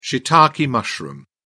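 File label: "shiitake mushroom", British English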